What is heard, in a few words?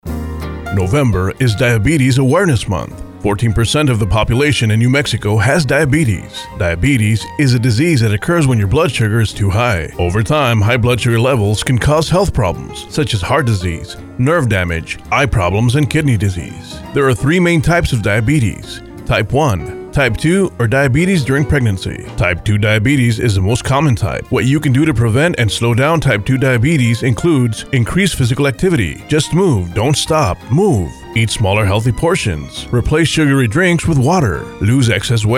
PSA English